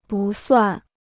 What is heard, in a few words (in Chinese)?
"不算", Chinese